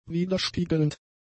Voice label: "widerspiegelnd", German; adjectives

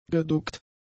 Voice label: "geduckt", German; adjectives

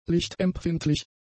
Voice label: "lichtempfindlich", German; adjectives

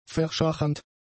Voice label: "verschachernd", german; adjectives

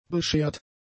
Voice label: "beschert", German; adjectives

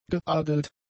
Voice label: "geadelt", German; adjectives

